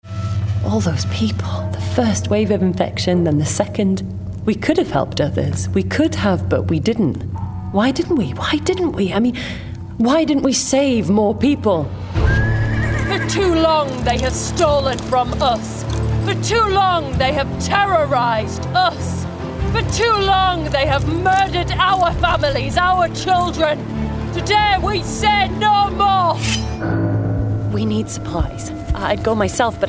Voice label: Gaming Voice